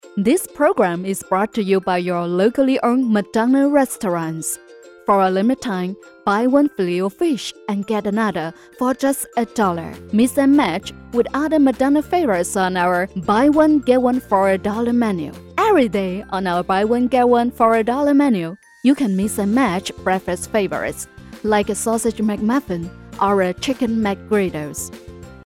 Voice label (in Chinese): 英文